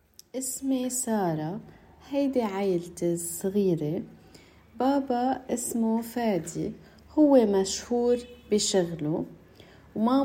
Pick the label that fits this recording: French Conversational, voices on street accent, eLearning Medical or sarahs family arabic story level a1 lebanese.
sarahs family arabic story level a1 lebanese